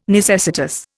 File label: "necessitous", Indian